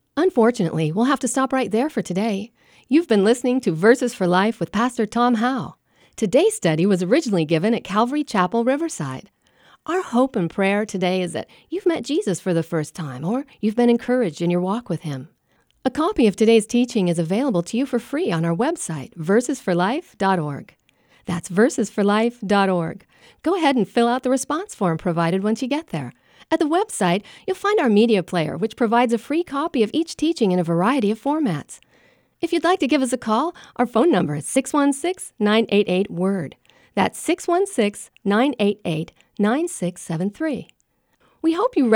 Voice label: Outro (Female); Verses For Life